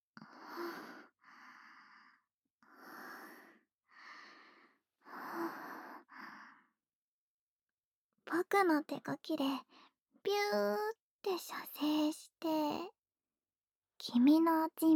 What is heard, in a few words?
ASMR